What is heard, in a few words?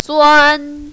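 "zuan" first tone, mandarin, female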